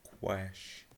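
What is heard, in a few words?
"quash", US English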